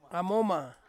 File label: "amoma", Ansus; fly